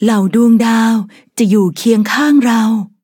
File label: level up, th; Cookie Run